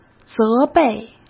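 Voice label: "责备", Mandarin Chinese; chinese-flashcards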